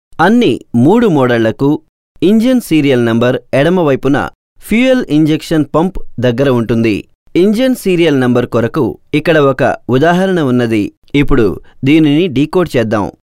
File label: Telugu male